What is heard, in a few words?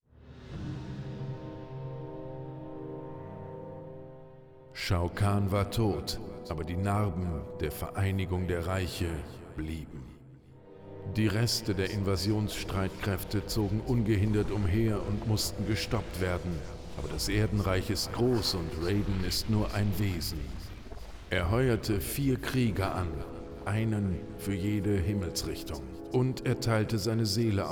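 music voice-over endings German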